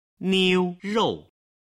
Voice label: "niu rou", Chinese